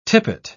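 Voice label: "tippet", British English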